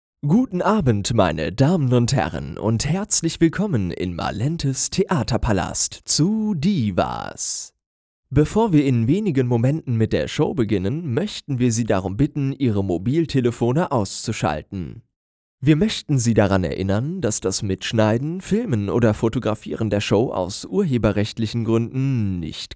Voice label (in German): theateransage; divas